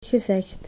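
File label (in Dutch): "gezegd", Dutch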